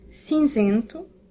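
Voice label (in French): "gris", French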